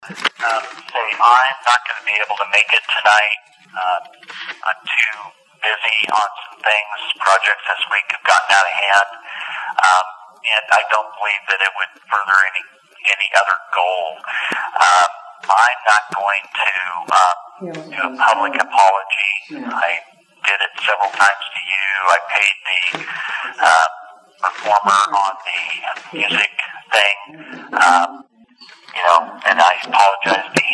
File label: Voicemail